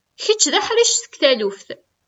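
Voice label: Kabyle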